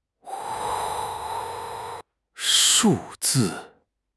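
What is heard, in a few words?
"shu4 zi4", Mandarin Chinese; HSK4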